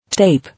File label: "Tape", English